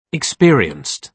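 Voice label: "experienced", British English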